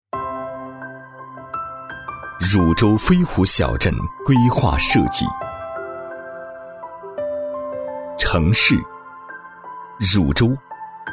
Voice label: 男 规划设计 多媒体展示设计 厚重质感; 厚重磁性 成熟厚重

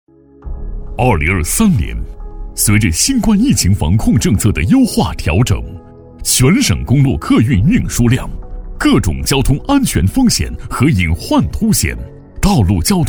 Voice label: 男 警示片 道路交通, 大气浑厚

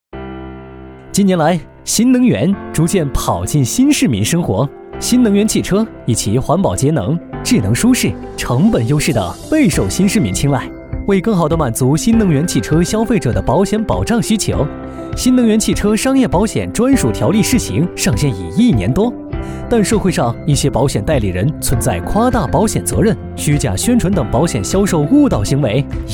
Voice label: 男 新能源车 自然讲解; 飞碟说

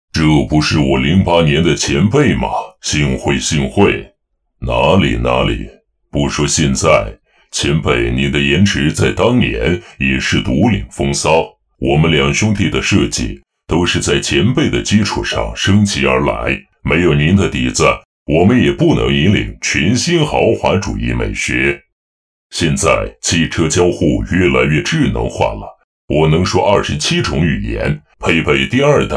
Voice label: 男 机器人模仿; 擎天柱; 声音百变; 幽默搞怪

